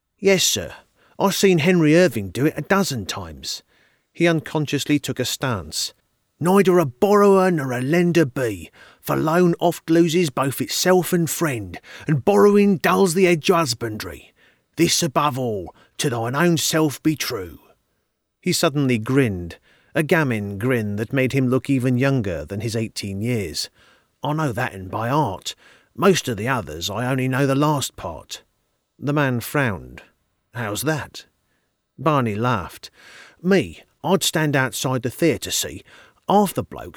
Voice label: cockney